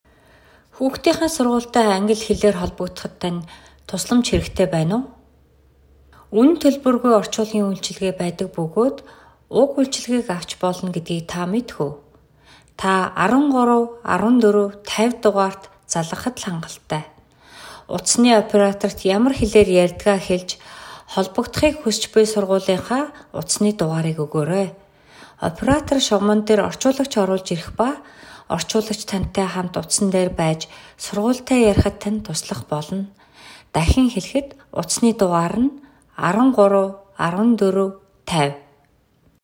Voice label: mongolian; public schools; going to a public school